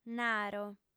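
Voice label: "naro" Sardinian, female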